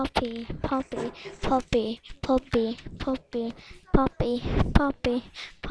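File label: "puppy", spoken word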